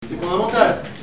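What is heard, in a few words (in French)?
"fondamental", French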